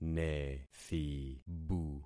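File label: "nethibu", concatenated syllables; Affixing experiment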